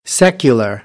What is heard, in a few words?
"secular", American English; ɚ - əɹ